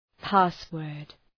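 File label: "password", English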